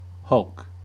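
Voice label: "hulk", US English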